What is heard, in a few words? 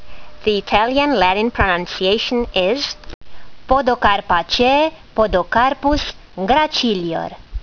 latin; Podocarpus gracilior